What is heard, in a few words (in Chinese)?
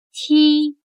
"踢", chino